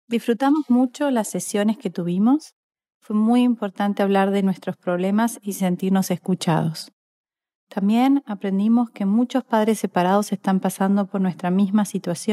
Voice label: Spanish quote